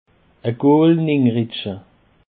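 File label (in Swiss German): "gool nin rutsche", Alsatian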